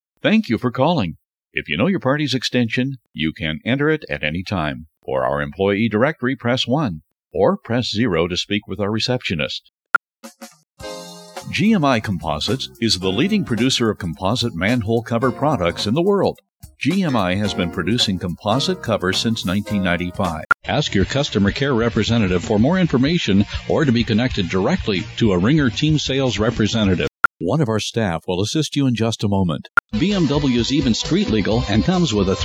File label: on hold IVR sampler